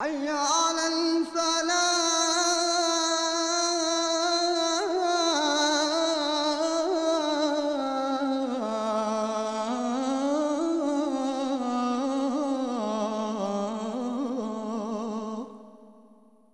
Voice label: muezzin